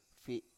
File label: "fi7", Biak